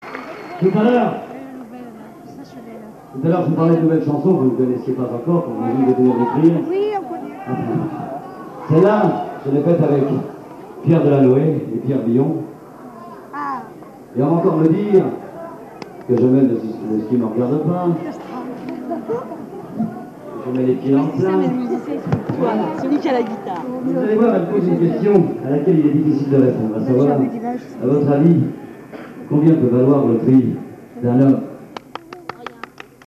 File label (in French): Presentation; Le prix dun homme; Marignane